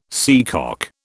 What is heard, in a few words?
"seacock", British